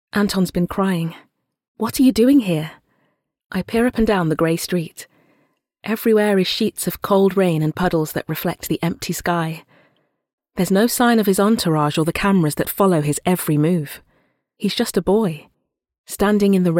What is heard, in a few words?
Audiobook